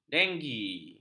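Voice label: "denggi", Malay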